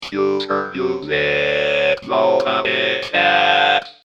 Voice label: Chipspeech vocal